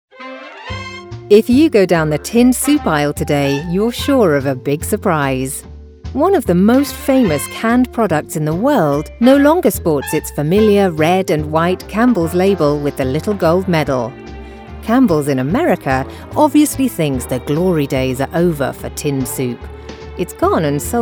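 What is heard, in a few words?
British English, Documentary; GoLocalise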